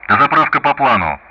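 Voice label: Speech Russian; DCS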